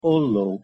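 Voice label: "ollo", Hungarian pronunciation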